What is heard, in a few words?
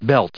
"belt", en us